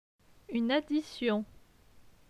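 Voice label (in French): "Une addition", French; phonetique; les consonnes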